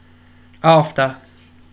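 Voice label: "after", British English, male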